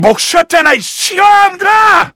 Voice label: jeers; sniper